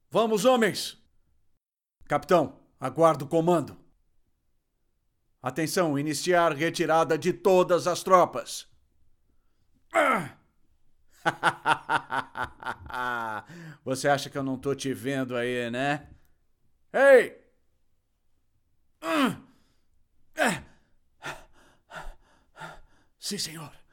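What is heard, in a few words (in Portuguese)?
Português - Brasileiro, Localização de game, Voz Padrão - Grave, Masculino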